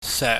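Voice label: "seuk", Cantonese, mid level tone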